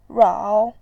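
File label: "rao", Mandarin Chinese, third tone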